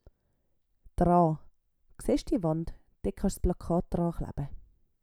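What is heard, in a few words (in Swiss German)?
"draa", Swiss German; Wortschatz Kapitel 8; Kapitel 8 Zusatzwortschatz 3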